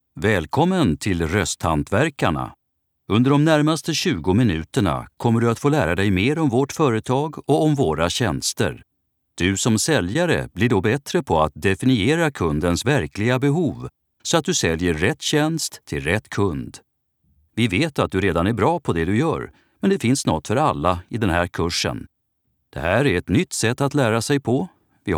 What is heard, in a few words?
m elearning swe